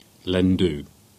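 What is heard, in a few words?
"Lanjew", Cornish; place-names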